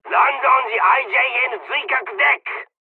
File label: landing, dialogs english; War Thunder